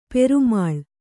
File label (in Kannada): "ಪ್ರೇಮಲೆ", Kannada